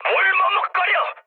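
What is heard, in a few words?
Korean attack position mood med, speech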